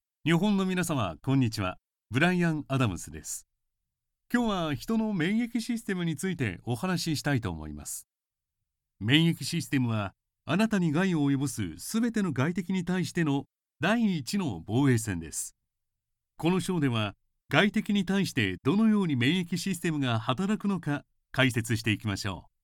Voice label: japanese e-learning